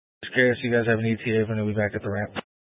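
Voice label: Incident Tac 1, Scanner audio recording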